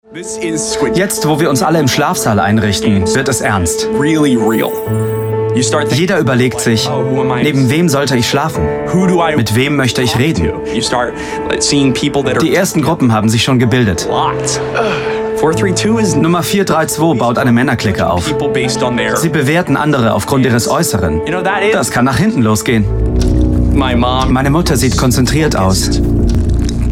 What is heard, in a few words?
voiceover